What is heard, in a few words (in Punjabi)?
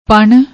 "pan", Punjabi